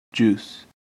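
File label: "juice", American English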